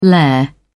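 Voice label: "lair", British English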